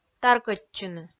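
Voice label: "таркӧдчыны", Komi, female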